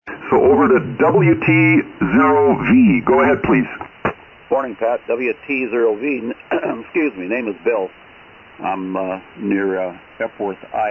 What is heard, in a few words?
Kenwood TS-830S & Shure 444 Mic; Epworth, IA